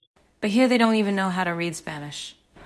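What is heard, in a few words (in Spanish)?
Voice Acting, Spanish, female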